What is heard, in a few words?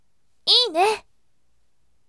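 voice, bright; iine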